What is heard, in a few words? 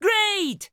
upgrade, ja